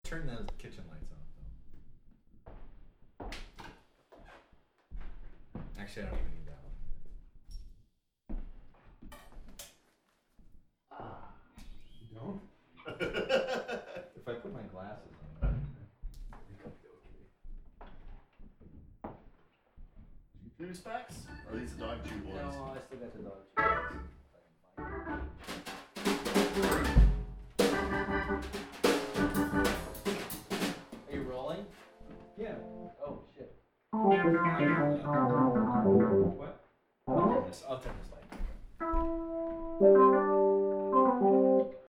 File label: us talking